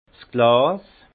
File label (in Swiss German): "glas", alsace; vin; verre